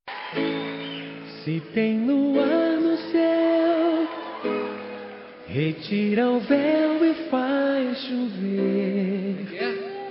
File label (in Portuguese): Telemensagem Romântica Virtual – Voz Feminina